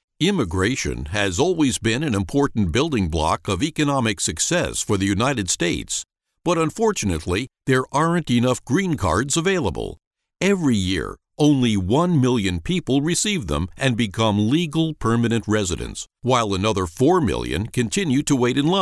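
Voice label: American English, male, voice over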